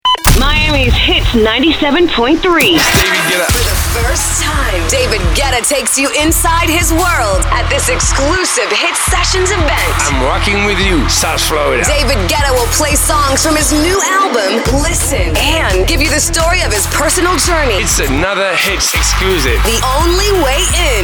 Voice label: radio women chr; radio imaging

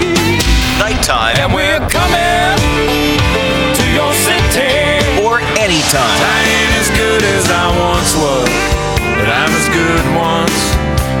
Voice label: country, radio imaging

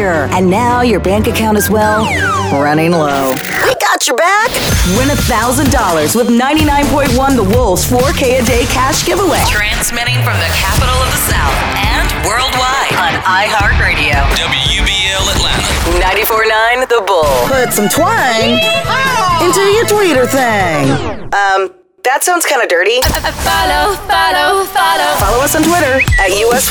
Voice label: radio imaging women country

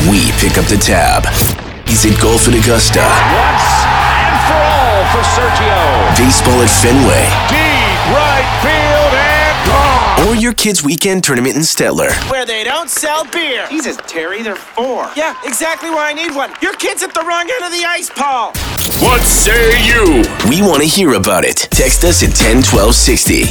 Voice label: radio imaging men sports

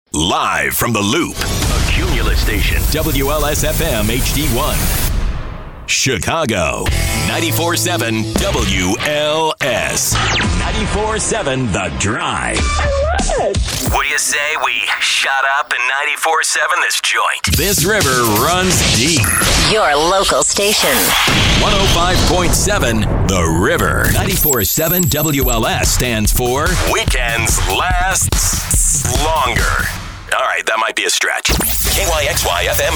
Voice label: radio imaging men hot ac

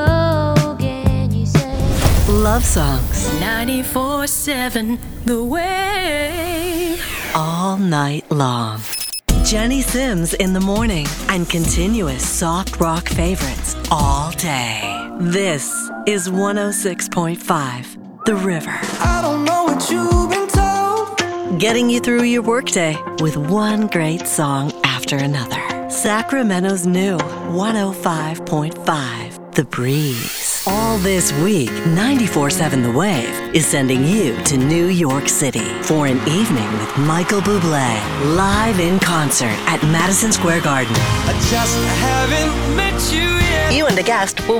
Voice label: radio imaging women ac

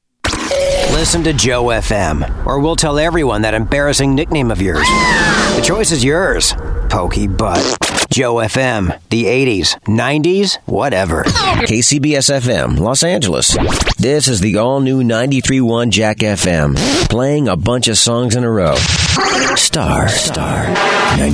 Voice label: radio imaging

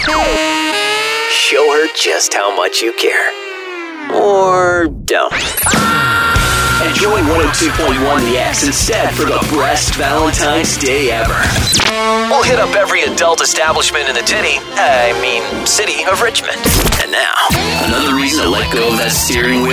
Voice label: radio imaging rock

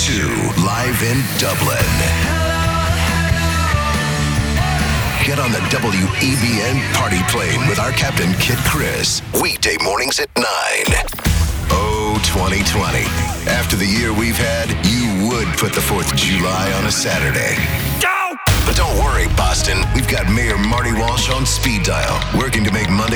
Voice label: radio imaging men rock

